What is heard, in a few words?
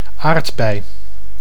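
"aardbei", Dutch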